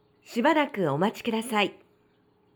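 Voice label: "shibarakuomatikudasai", Japanese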